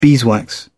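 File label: "beeswax", English, British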